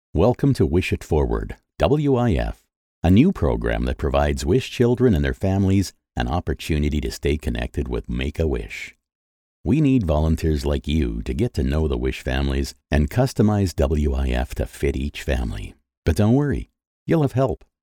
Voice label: english american corporate

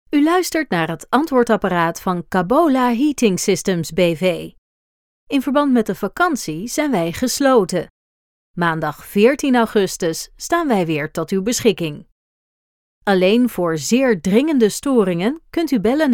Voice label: dutch ivr

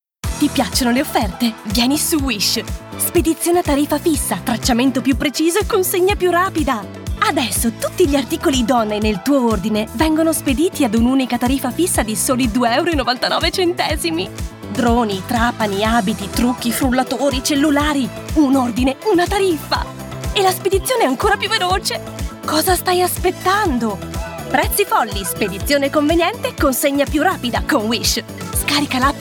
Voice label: italian promos; professionelle italienische Schauspielerin

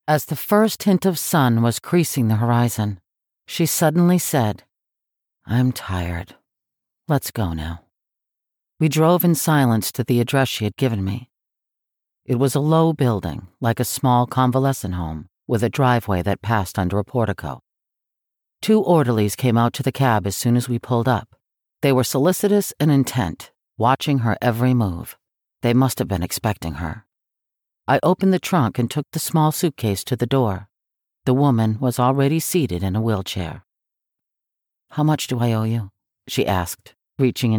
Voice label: english american narration

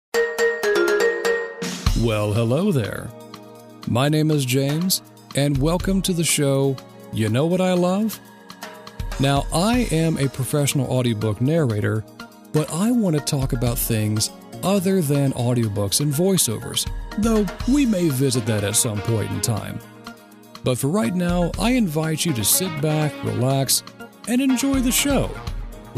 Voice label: english american podcasts

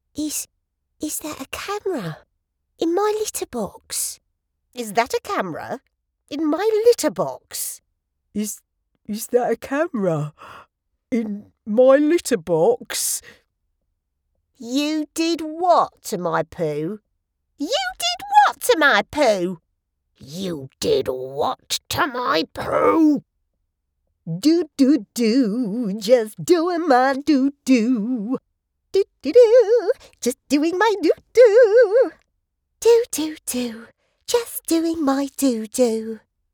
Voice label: english british animation

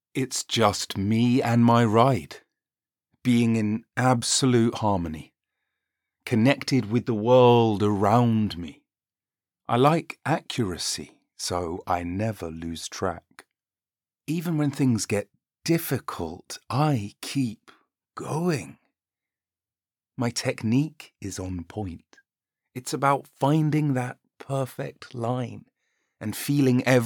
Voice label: english british automotive

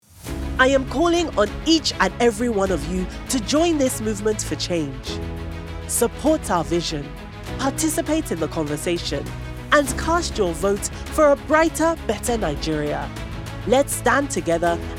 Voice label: english african political ads

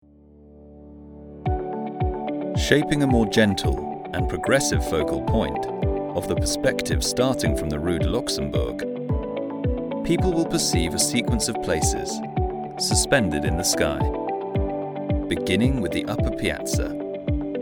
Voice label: english british audio guides; locutor de doblaje en inglés (inglés del sur británico)

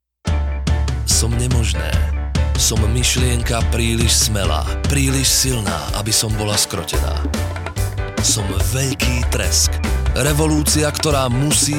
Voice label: slovak commercial

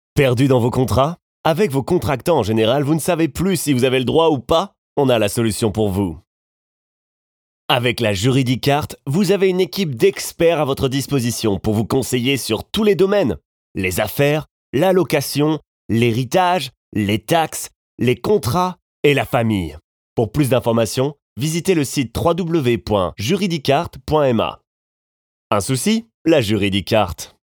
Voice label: French corporate videos

